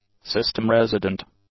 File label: "system-resident", English; adjectives